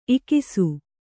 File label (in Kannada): "ಇಕಿಸು", Kannada